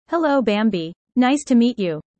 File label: user fast; Animal friends